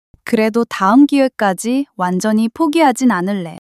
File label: excitement